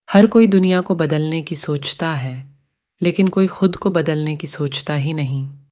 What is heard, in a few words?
hi hindi, spoken language